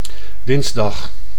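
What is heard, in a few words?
"dinsdag", Dutch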